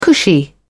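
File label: "cushy", British English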